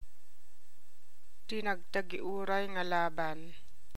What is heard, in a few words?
Ilocano; most awaited fight - tinag-tagiuray nga laban